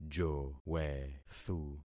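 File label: "jowethu", concatenated syllables; Affixing experiment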